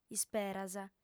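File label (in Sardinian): "isperas", Sardinian, female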